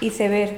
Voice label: "iceberg", andalusien sevilla